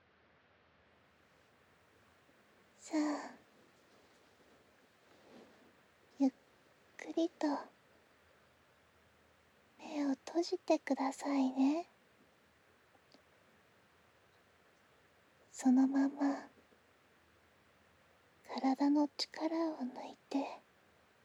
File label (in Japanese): もう一度、添い寝, ASMR